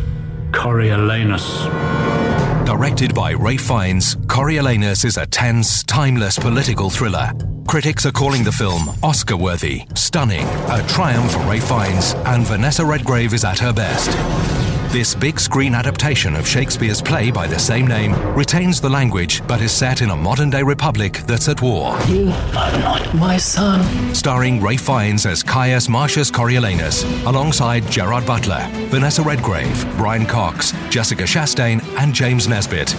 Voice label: movie trailer